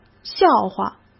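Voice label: "笑话", Mandarin Chinese; hsk; chinese-flashcards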